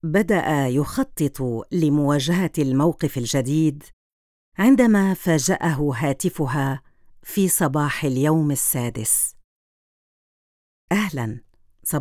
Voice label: Documentary